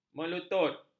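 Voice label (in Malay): "melutut", Malay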